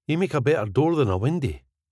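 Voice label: Ye mak a better door than a windae, Scottish English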